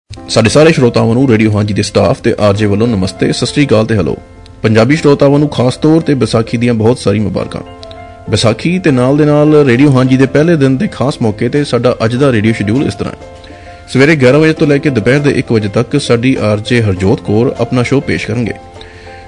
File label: Radio schedule Punjabi; Radio Haanji